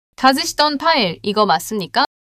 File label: neutral